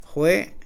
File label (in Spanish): cinco, Mam; Números; INALI